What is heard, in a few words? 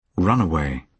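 "runaway", British English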